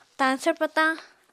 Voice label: "tañsvr patañ", apatani

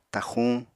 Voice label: "taxuñ", apatani

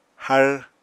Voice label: "har", apatani

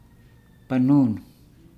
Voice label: "banunu basket", Nukuoro

